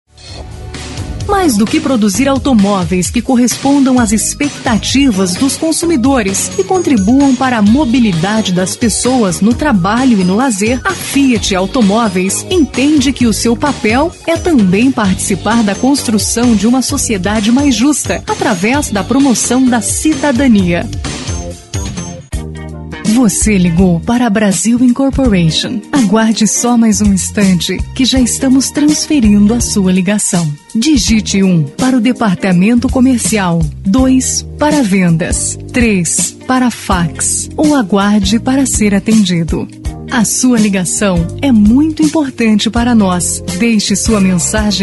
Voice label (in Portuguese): locutora, vozes feminina